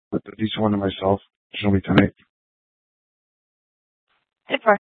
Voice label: Superstition Dispatch, Mesa PD